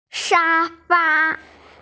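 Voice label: "shafa", Mandarin Chinese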